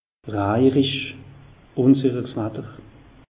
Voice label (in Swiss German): "rajerisch", alsace; meteo